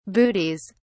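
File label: "bootees", British English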